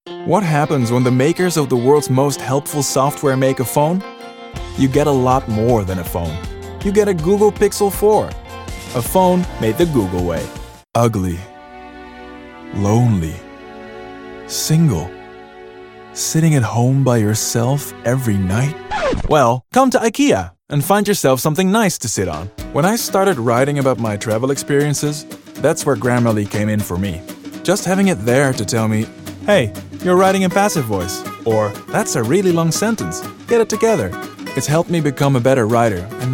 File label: commercial English